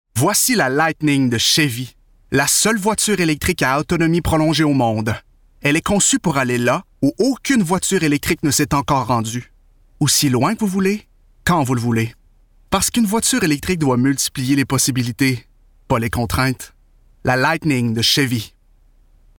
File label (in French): Qc soutenu Voix souriante sympathique assurée; Chevy